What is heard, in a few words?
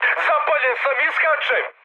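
Serbian on fire mood high, speech; War Thunder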